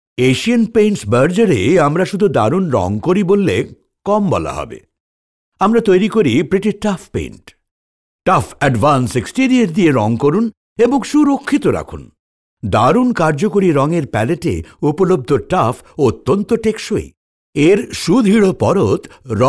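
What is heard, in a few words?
Bengali, male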